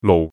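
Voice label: "lou4", Cantonese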